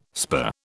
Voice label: "spur", British